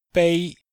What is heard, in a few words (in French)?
"bei1", chinois; Cours de Chinois